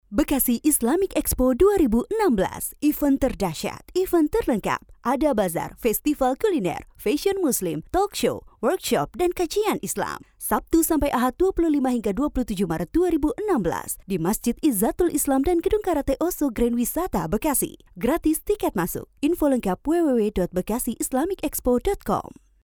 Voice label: Radio